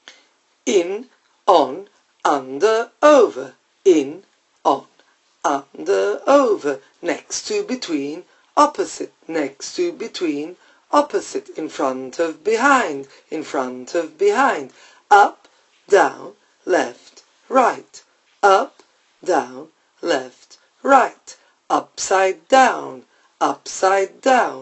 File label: preposition chant fast